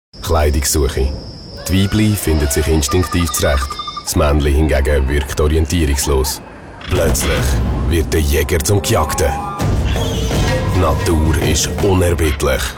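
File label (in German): Swiss German, Werbung Dynamisch; Fremdtake; GSW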